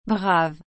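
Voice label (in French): "brave", French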